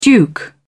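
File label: "duke", British English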